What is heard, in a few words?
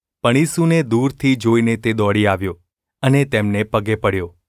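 gu ulb mrk, Gujarati; mrk 5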